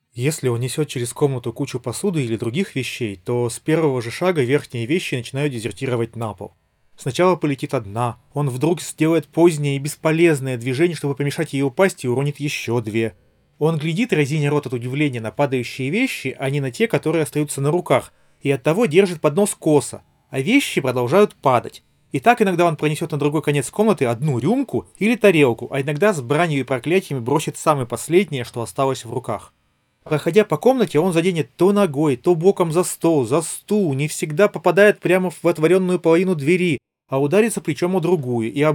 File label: Recorder; Запись на профессиональный рекордер; Tecno FreeHear 1